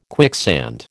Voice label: "quicksand", American

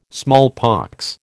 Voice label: "smallpox", American

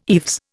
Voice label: "eaves", Indian

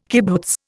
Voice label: "kibbutz", Indian

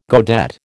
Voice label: "godet", American

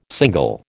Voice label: "single", American

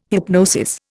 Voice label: "hypnosis", Indian

